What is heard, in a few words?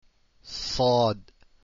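"saad", Arabic letters; deen path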